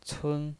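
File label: "cun", Mandarin Chinese pinyin voice, first tone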